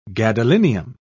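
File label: "gadolinium", British English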